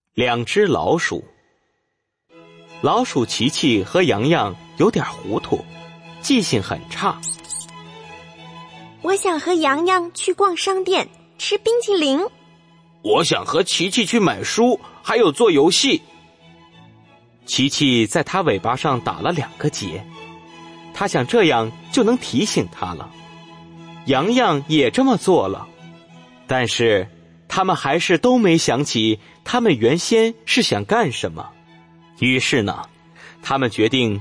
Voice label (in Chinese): 两只老鼠 男; 启蒙故事